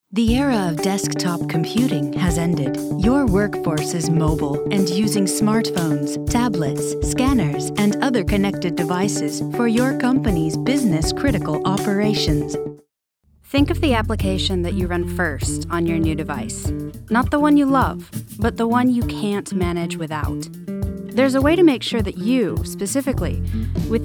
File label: Commercial